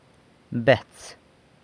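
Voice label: "bets", brother (of a male), San Lucas Quiaviní Zapotec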